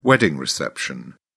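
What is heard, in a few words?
"wedding reception", British English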